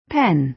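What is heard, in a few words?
"pen", American English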